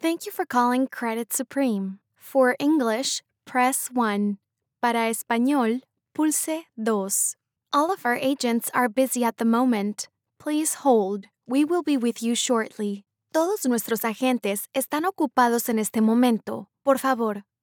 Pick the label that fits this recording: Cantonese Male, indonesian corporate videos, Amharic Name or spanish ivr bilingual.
spanish ivr bilingual